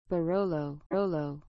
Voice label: "barolo", glossary